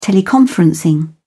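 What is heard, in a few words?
"teleconferencing", British English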